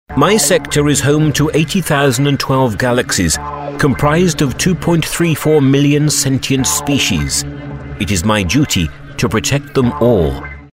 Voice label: british oddball

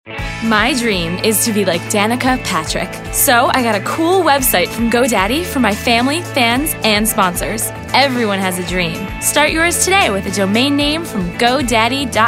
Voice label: real people youthful

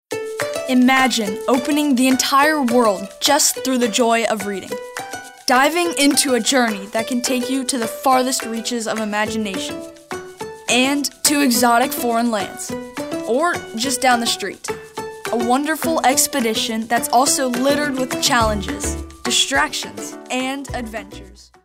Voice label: Reading, kid

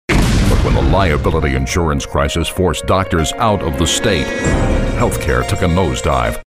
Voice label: ominous

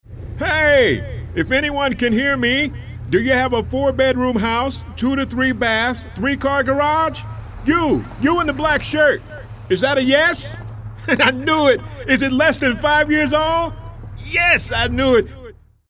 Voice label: urban midlife

